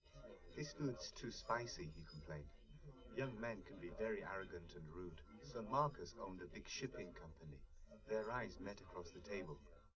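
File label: male, live recording; SiSEC11; underdetermined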